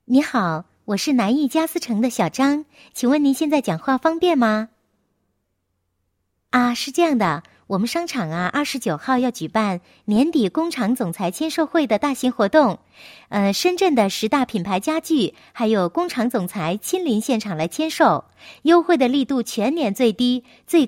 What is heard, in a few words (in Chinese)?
女 彩铃, 国语女声配音; 南艺家私城